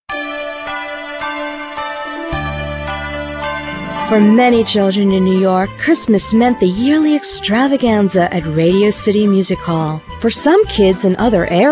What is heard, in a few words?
Narration